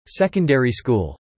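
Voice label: "secondary school" spoken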